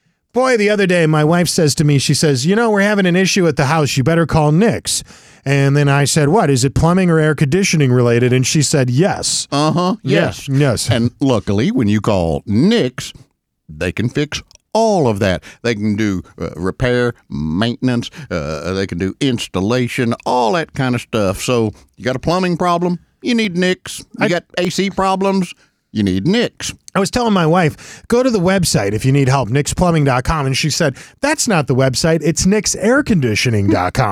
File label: RADIO AD; nicks plumbing radio ads